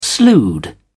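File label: "slewed", British English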